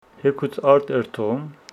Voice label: Homshetsi tomorrow i will go to the field